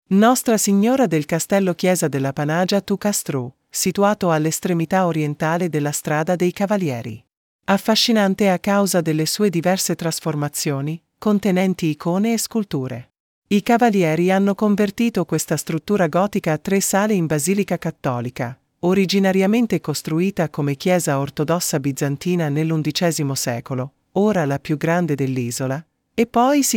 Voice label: Italian; Our Lady of the Castle Church Panagia tou Kastrou